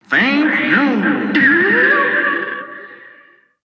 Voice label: "thank you"